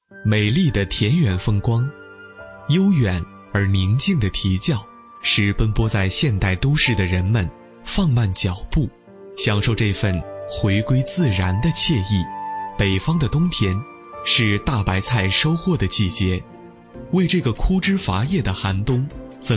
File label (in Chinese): 男 专题; 农家乐